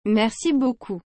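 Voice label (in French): "Merci beaucoup", French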